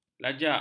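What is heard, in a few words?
"lajak", Malay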